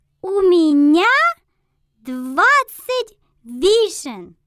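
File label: "20 cherries", Russian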